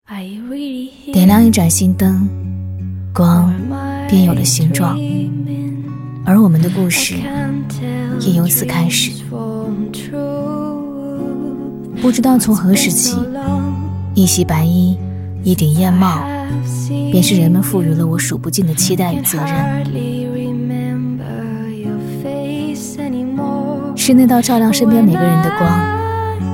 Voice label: 女, 专题, 走心自述; 大气质感; 低沉走心

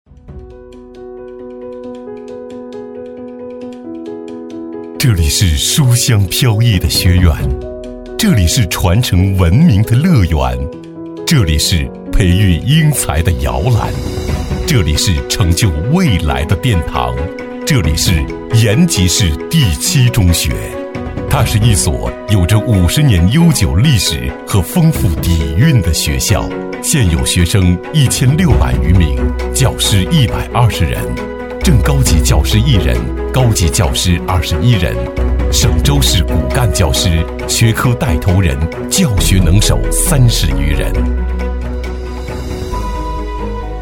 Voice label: 男 学校宣传片 磁性大气; 延吉市第七中学

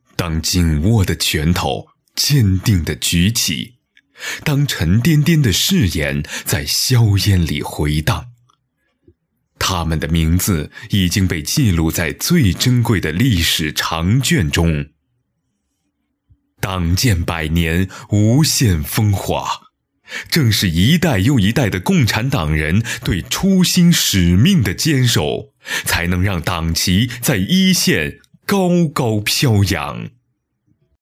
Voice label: 男 党建专题 厚重激励; 党建百年; 年轻稳重; 纪实记录

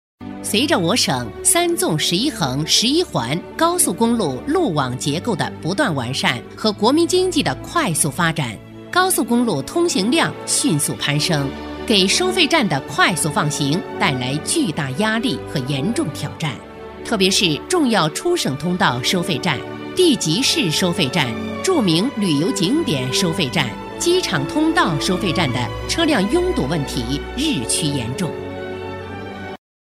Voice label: 女 专题配音 高速公路 稳重大气; 正气稳重; 沉稳大气

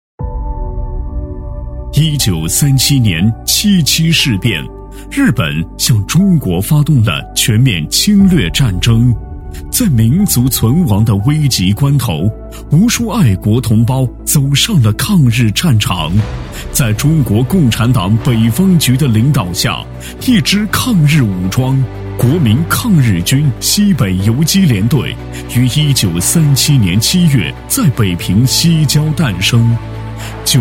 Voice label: 男 纪录片 浑厚; 黑山扈战斗纪念园

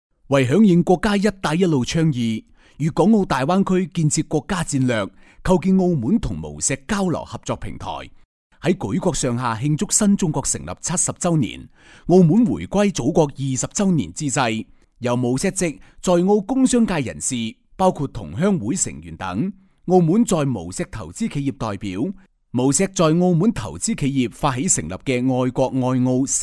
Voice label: 男 粤语配音宣传片; 男210-大气厚重 大气激昂; 澳门无锡

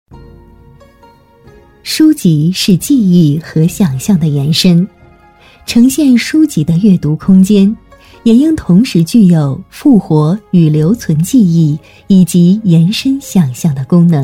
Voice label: 女, 专题配音, 书院设计, 舒缓甜美讲解; 知性稳重; 温柔知性; 和睦书院设计

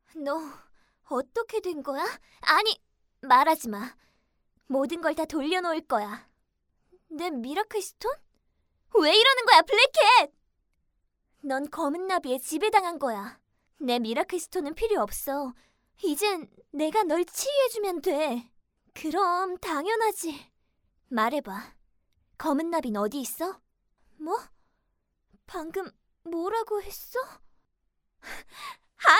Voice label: 韩语女声 正义明朗的英雄角色

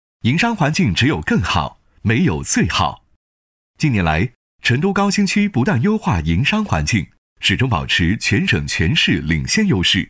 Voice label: 男 专题配音 年轻自然; 时尚科技; 年轻时尚

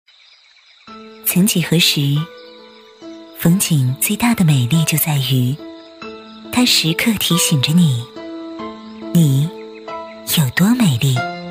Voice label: 女 走心微电影 内心旁白 磁性优雅; 走心旁白

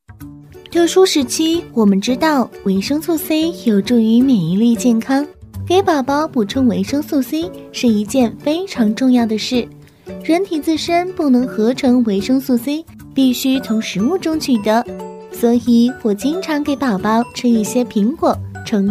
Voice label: 女-方言 针叶樱桃-台湾腔

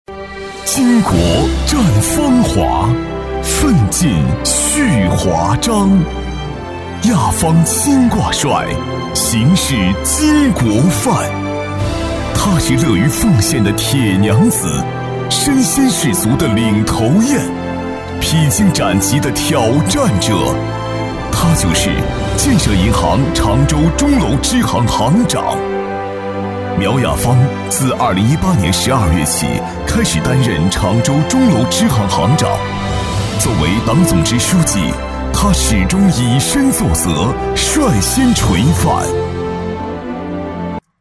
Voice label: 男 颁奖专题 大气感人; 厚重有力; 大气浑厚

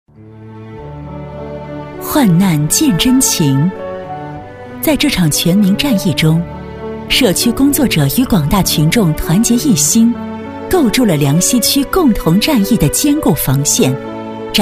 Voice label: 女, 政府专题, 社工宣传, 大气深情; 磁性质感; 成熟知性; 梁溪区社工宣传

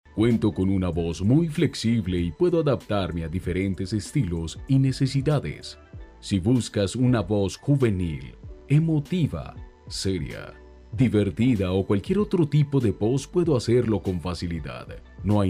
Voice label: 西班牙男声

